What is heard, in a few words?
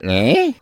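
"huh", vocal sample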